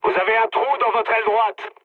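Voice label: French right wing mood low; War Thunder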